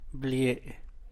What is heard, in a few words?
"blie", San Mateo Macuilxochitl Zapotec; cave; cueva